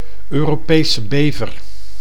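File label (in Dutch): "Europese bever", Dutch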